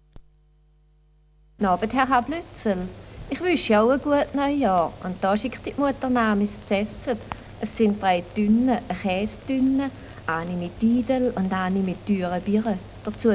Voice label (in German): Klettgau SH